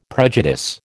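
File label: "prejudice", American English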